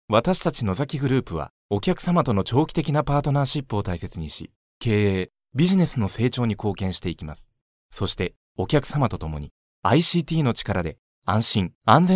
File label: male